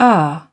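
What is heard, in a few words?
"equip", British English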